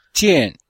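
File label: "jiàn", Chinese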